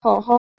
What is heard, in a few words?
"hauha", Proto-Germanic